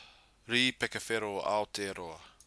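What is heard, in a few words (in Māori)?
"ripeka whero aoteroa", Māori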